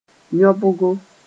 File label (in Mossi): "yõbgo", moore